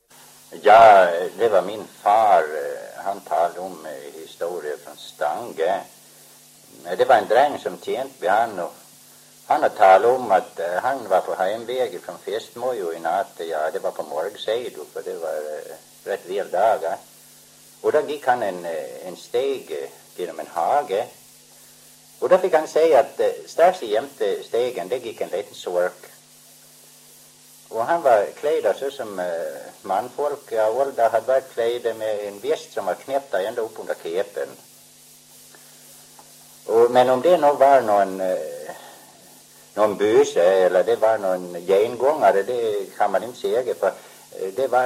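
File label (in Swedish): Hograns Gotland, dialekter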